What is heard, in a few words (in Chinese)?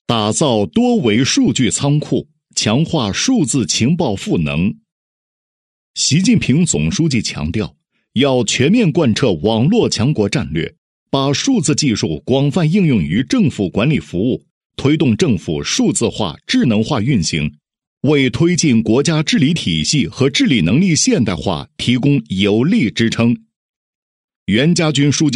男, 政府专题, 稳重大气; 柔和; 大气浑厚